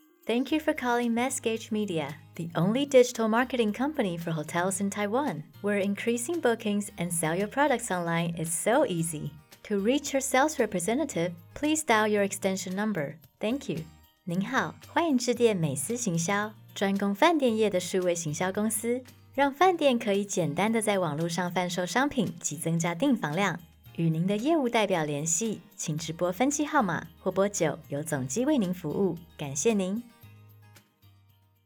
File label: voicemail bilingual; female voice in Mandarin Chinese